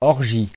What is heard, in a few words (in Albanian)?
"orgie", frengjisht